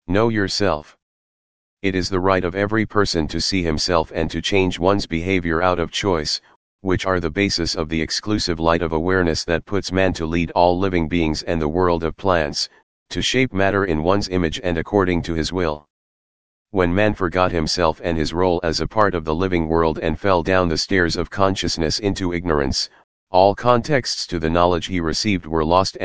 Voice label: Male voice